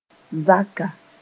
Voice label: "zaka", moore

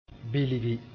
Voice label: "bilgi", moore

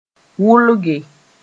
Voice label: "wʋlge", moore; soak to make softer

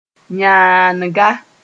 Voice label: "yãanga", moore; female (adult)

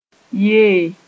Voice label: "yee", moore

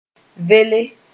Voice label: "vele", moore

